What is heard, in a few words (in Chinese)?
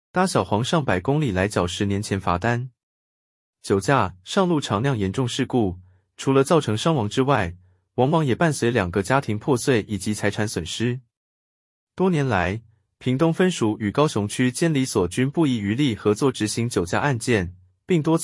ai語音-男; 搭小黃上百公里來繳10年前罰單